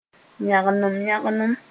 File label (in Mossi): "yãgnem-yãgnem", moore